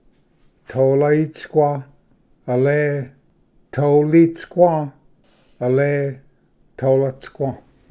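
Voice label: "tolaisqua", Cherokee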